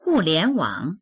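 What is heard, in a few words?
"hu4lian2wang3", Mandarin Chinese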